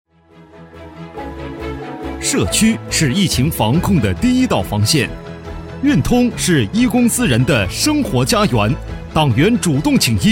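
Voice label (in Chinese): 男专题; 社区疫情防控专题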